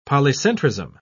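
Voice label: "polycentrism", British English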